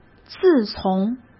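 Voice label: "自从", Mandarin Chinese; hsk; chinese-flashcards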